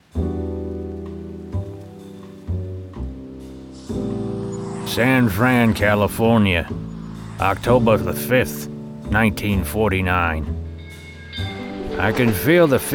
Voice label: Cleche' Noir Detective